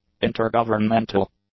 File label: "intergovernmental", English; adjectives